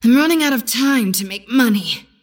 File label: last hit pain; Anti-Mage